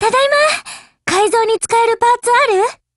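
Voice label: Japanese, Voice; OPERATION OVER; GF Alarm; SPAS12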